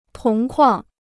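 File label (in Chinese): "铜矿" Chinese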